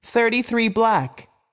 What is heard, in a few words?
voice Over, roulette; europeanroulette3